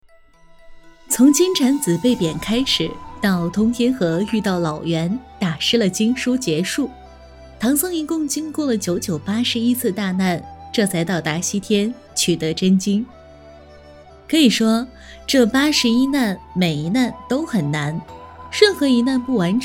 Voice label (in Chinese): 读物故事讲解